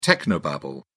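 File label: "technobabble", British English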